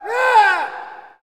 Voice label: Hockey Male "Yeah"; Hockey Vocal Sounds